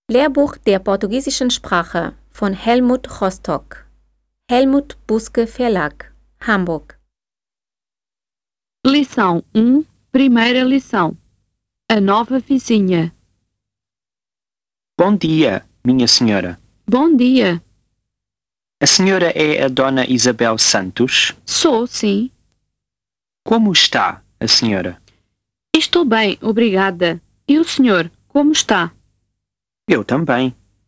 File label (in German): langsam; a nova vizinha